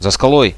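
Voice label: behind the rock, Russian, radio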